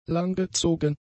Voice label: "langgezogen", German; adjectives